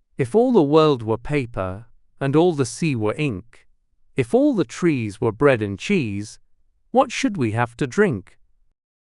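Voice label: male British, classic rhymes; If All the World Were Paper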